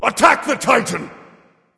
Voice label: vox attack m; smite; anubis